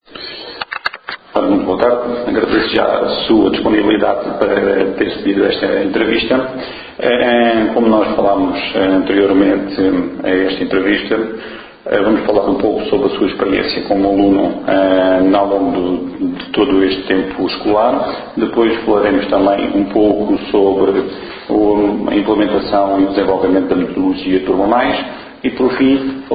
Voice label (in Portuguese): Entrevistado